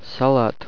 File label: salad, russian